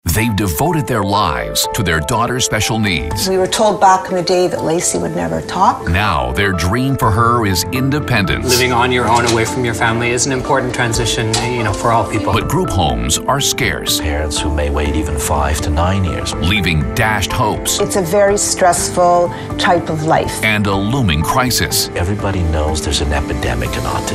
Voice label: Habillage Vorschauvideos; Jugendfrei; NATIVE PROFISPRECHER

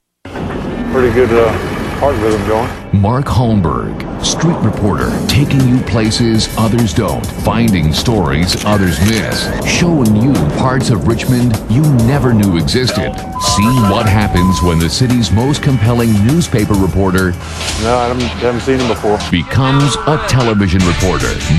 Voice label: Habillage Vorschauvideos; Jugendfrei; NATIVE PROFISPRECHER

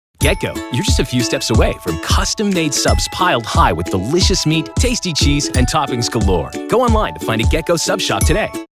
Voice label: TV Werbespots Jugendfrei; NATIVE PROFISPRECHER

